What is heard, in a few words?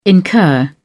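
"incur", English, British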